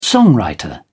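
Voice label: "songwriter", British English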